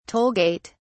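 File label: "toll gate", British English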